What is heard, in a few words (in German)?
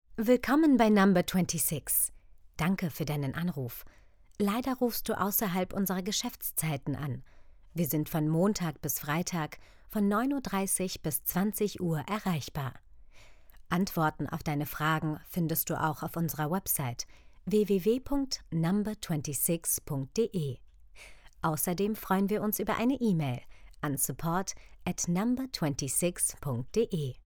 deutsch frauen telefon; sprecher